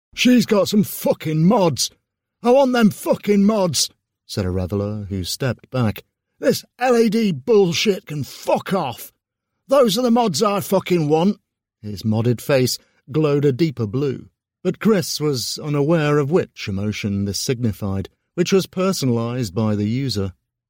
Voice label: voiceover sci fi beligerant character